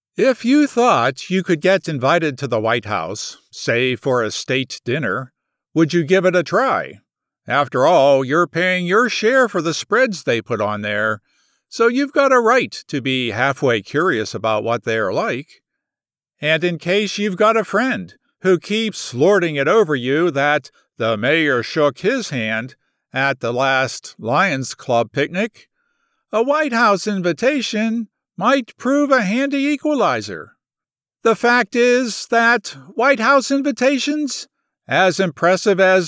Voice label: Explainer Conversational

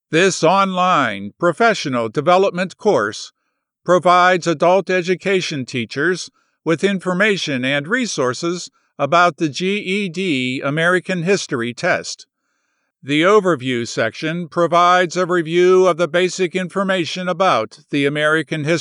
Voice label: eLearning Academic Instructive

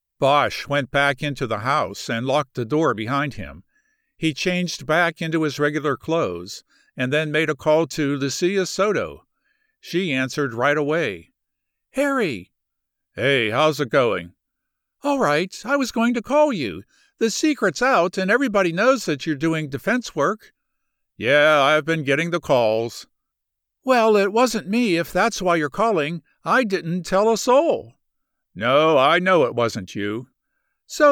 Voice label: Audiobooks Fict 3rd person M F dialogue

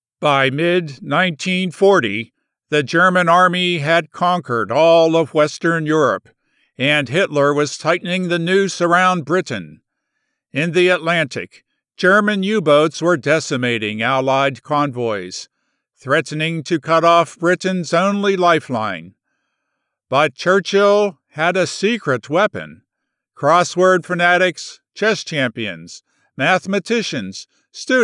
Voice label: elearning Gritty

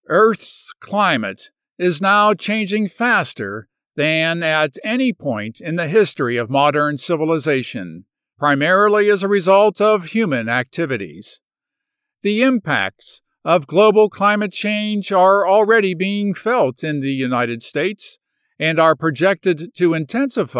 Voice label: Explainer Authoritative

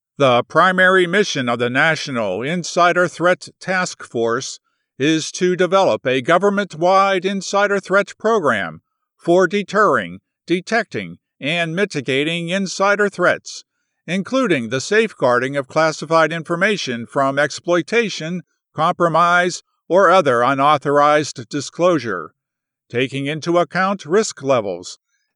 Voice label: eLearning Govt Authoritative

